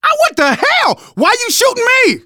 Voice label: friendly fire, manager, survivor, voice; Left 4 Dead 2; left4dead2 dlc3